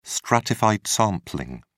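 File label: "stratified sampling", British English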